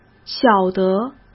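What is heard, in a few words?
"晓得", Mandarin Chinese; hsk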